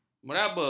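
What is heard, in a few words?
"meraba", Malay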